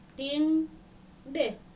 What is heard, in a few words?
"Tiŋde", Dinka